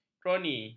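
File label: "kroni", Malay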